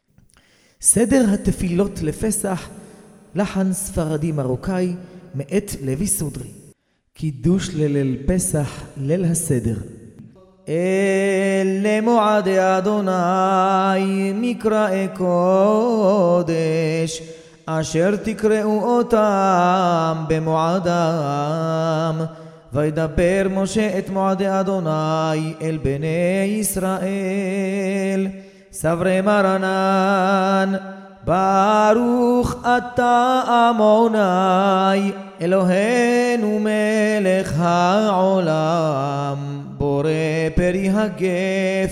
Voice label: recorded prayer pesach morocco; תפילות חג הפסח נוסח מרוקו (4 שעות)